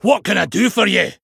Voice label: Male Dwarf Greetings

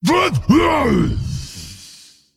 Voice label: Male Drakanoid Death

